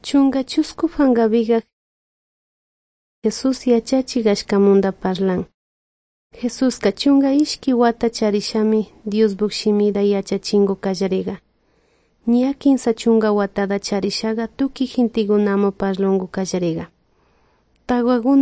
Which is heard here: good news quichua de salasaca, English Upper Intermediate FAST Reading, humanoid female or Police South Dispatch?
good news quichua de salasaca